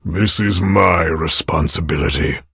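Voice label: defeat, English; Cookie Run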